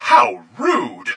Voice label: droid voice how rude